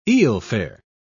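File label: "eelfare", British English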